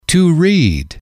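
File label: "to read", English, male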